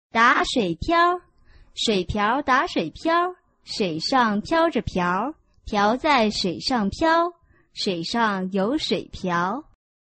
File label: chinese tongue twister